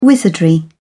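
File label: "wizardry", English, British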